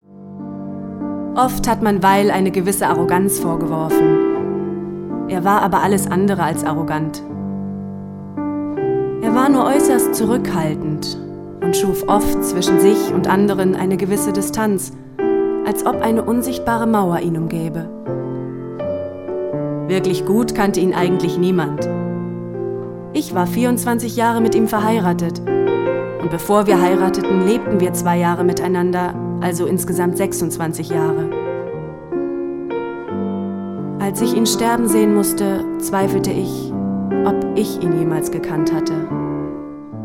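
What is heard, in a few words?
German Narration; GoLocalise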